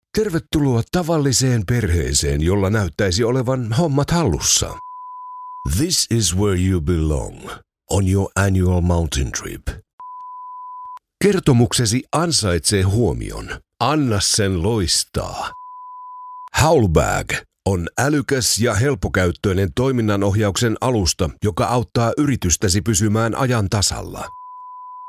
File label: character